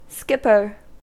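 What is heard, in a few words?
"skipper" English, US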